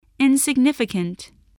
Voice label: "insignificant", voice spelling